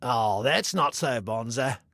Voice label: Taunt Aww, Male; Male 02

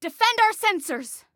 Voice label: Defend Sensors, Female; Female 02

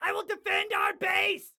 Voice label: Self Defend Base, Excited Guy

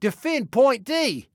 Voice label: DEFEND, Male

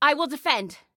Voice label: Self Defend, Female; Female 02

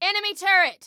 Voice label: Warn Context A Turret, Female

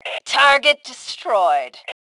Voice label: Target Destroyed, Female; T2 Female 03

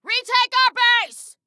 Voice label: Base Retake, Female

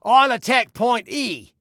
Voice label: ATTACK, Male

